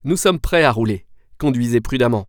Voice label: Start Drive, french; waze

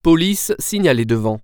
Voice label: Police, french; waze

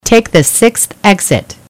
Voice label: "Sixth", eng; waze

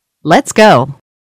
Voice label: Start Drive, eng; waze

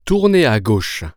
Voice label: Turn Left, french; waze

